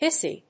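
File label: "hissy", English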